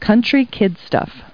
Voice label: people, kid

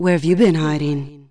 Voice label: where been, female, neutral

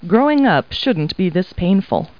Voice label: people, kid

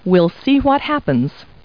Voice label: people, kid

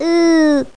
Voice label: ESP Aww; Total Distortion Big Demo; ESP man

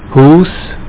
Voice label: house Swiss German; Sun Microsystems Audio File; 8,000 sample rate; WordMatch

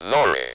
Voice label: lorry, Speak & Spell UK Speech

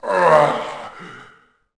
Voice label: zombie; Thief II

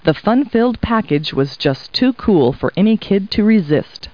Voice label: people, kid

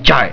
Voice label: "six", Klingon; Klingon BG App; Hacks97

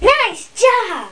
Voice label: Nice Job Pitch Shift; Let's Discover Michigan!

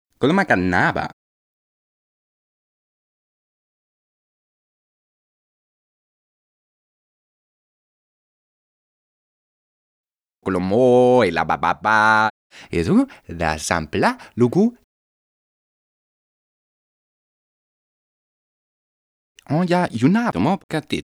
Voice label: talk Casual; Singles; French Version

